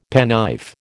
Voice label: "penknife", American